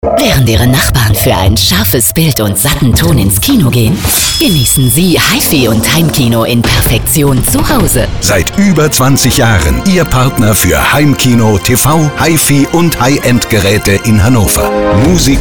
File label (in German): HiFi Radiospot; Musikus